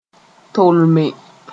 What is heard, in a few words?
"tolme", Mooré